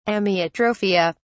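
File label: "amyotrophia", British English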